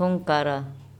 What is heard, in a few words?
"ungkara", andamanese; nails